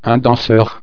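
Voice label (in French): "danseur", French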